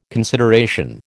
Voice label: "consideration", American